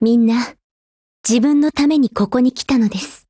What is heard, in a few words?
BUILD OVER JP; GF Alarm; M82A1